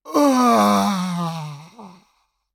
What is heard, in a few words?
Male Human Death